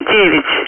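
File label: Speech, Russian, air traffic control; Digits; DCS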